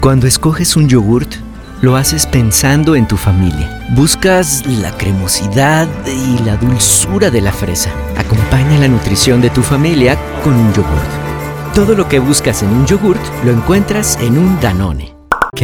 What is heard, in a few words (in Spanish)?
neutro, espanol neutro